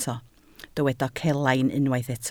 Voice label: "celain", Welsh